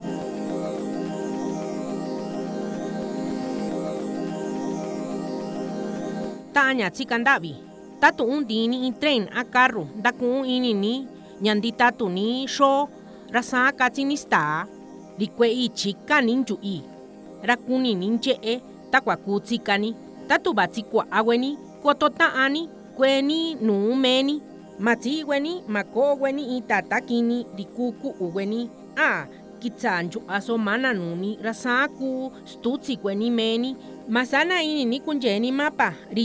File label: MIXTECO